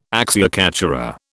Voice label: "acciaccatura", British